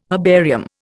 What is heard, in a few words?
"herbarium", Indian